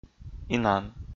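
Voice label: "инан", Komi, male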